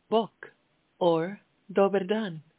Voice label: Croatian, hello